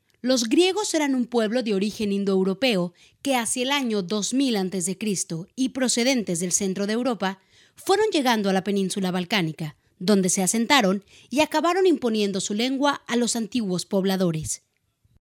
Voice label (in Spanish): locutora mexicana mexican female voiceover; locutora mejicana